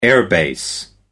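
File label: "air-base", British English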